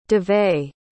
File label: "dovey", pronounce